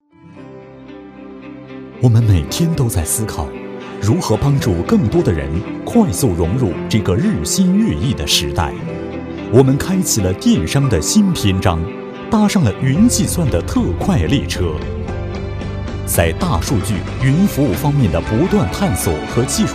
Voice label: 男 广告片, 国语男声配音, 浑厚磁性; 京东

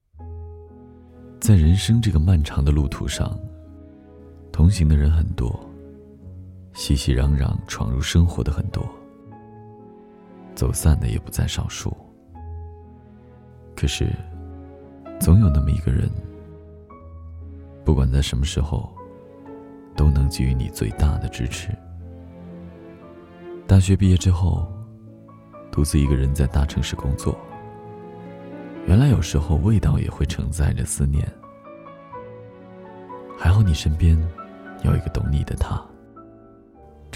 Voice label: 男 品质广告 文艺小资

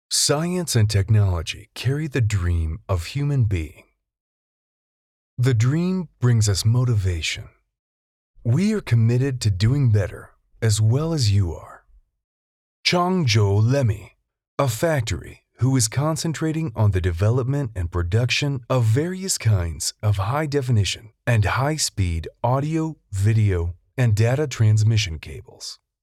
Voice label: 英文配音

